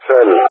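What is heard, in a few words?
"Shell", English speech; CallSign; Allied Flight; DCS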